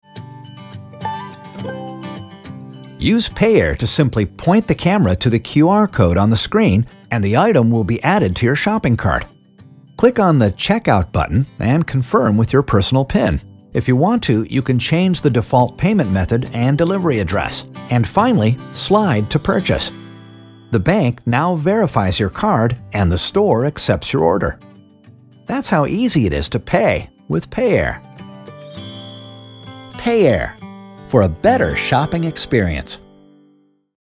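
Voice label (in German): TV Werbespots Jugendfrei, English US; NATIVE PROFISPRECHER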